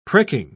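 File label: "pricking", British English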